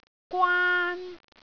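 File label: "gwaan1", Cantonese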